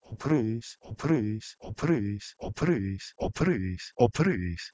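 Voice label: Proto-Indo-European h3bhruHs to Ancient Greek ophrys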